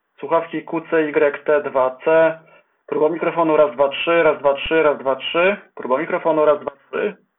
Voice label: QCY T2C mic test